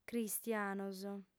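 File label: "cristianos", female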